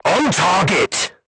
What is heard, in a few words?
voice message direct hit, crew tanks portuguese